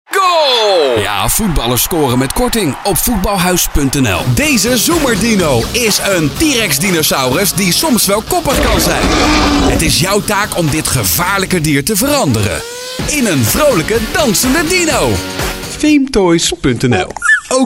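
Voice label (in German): Dutch werbung, voicesamples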